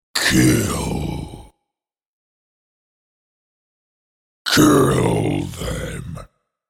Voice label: Kill Spoken, The words ‘Kill’ and ‘Kill Them’ spoken in gruff monster voice.; Evil Voices; Horror